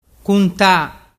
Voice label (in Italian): "cunta", dialettu